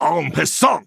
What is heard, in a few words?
Male Chess Piece Play